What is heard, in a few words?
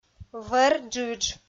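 вӧр джыдж, female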